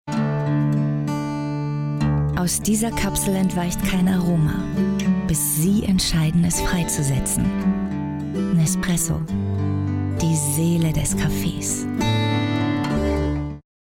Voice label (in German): Werbung; nespresso